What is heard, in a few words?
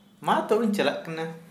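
Santali male goodbye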